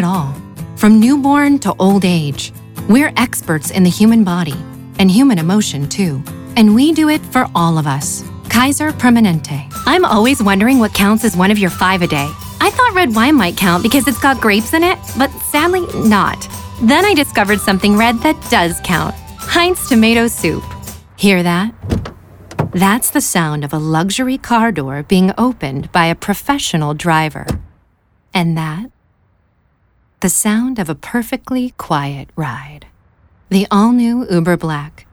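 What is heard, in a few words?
voice over commercial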